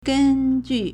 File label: "gen1 ju4", Chinese